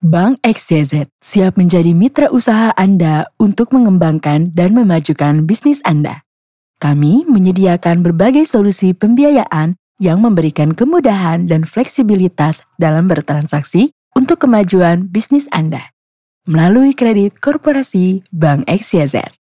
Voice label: Formal Indonesia